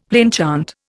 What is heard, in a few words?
"plainchant", Indian; Dictionary